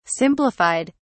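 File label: "simplified", British English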